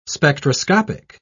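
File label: "spectroscopic", English, British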